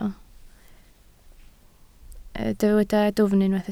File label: "dwfn", Welsh